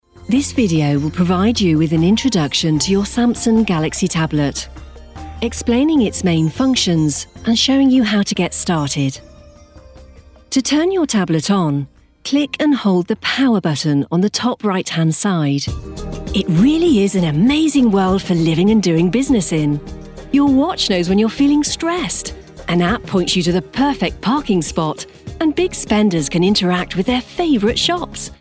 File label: training elearning, british voice; instructional